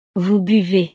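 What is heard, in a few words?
"vous buvez", French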